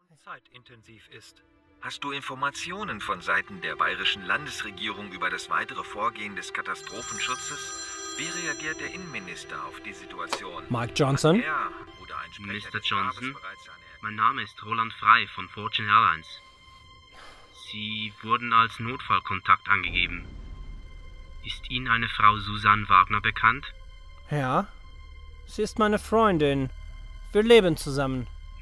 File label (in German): Auszug aus einem Hoerspiel Hochdeutsch